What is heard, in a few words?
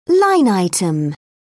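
"line item", British English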